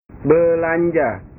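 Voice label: "belanja", Indonesian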